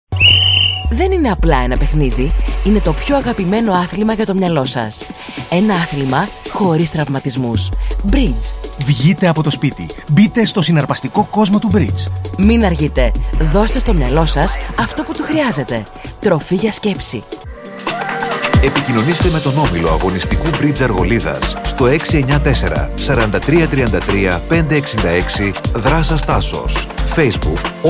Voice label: Radio Spot; μπριτζ μαθήματα